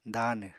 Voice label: "daanw", Apatani